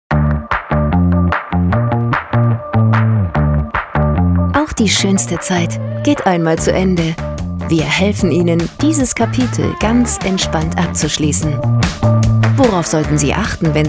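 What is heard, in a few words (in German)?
Erklärfilm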